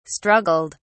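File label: "struggled", British English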